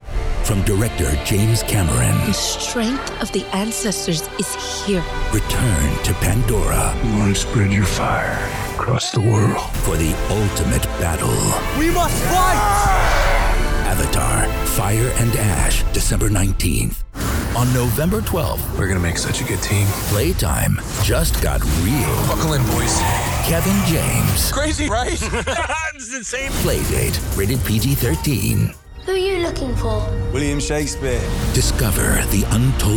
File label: trailer, voice actor